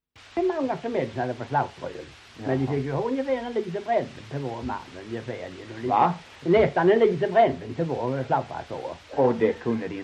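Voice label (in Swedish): Ramdala Blekinge, svenska sagor dialekter; Folkminnen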